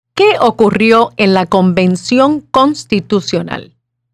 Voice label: Spanish